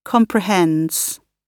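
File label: "comprehends", British English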